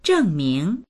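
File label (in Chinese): "zheng4ming2", Mandarin Chinese; zhèngmíng; 证明; 証明する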